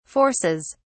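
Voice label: "forces", en, uk British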